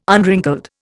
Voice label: "unwrinkled", Indian